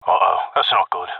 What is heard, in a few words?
alarm, radio; coop radio autumn; felix; broken fang mission1